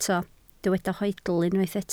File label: "hoedl", Welsh